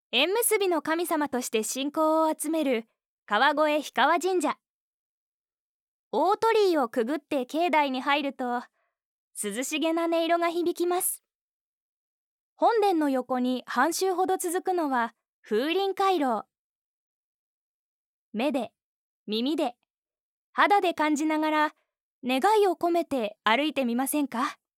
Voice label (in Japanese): CM旅番組